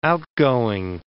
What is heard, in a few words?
"outgoing", English word